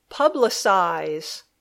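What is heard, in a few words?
"publicize", English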